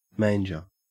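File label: "manger", Australian English; pronunciations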